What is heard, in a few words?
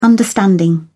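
"understanding", English, British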